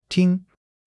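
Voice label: "tīng", Chinese; 基础汉语40课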